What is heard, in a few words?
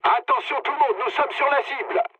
near waypoint mood low, speech fr; War Thunder